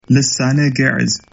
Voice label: geez